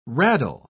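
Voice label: "raddle", British English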